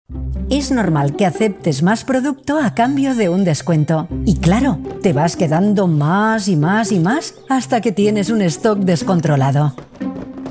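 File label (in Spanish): doblaje España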